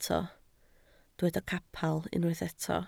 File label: "capel", Welsh